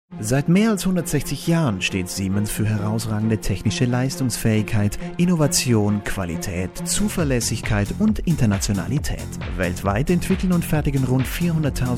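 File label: german male commercial; voice demos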